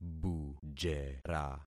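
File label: "bujera", concatenated syllables; Affixing experiment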